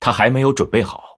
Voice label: Male Blood Elf ERROR SUMMON SICKNESS; zh